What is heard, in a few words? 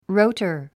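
"rotor", English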